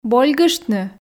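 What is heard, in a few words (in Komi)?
"больгыштны", Komi, female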